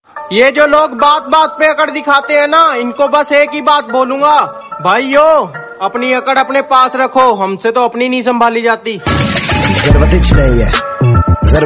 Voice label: Attitude Hindi dialogue